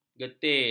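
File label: "getir", Malay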